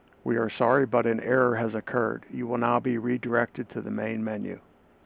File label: Voice General Error Message